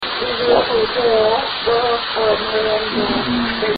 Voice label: possible female evp